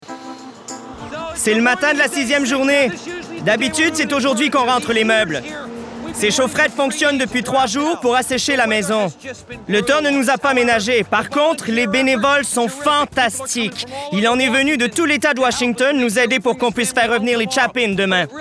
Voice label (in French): Voix Surimpression